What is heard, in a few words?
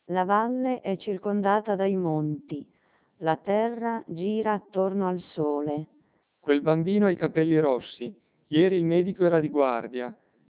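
Italian, speech, CODEC2 3200 bps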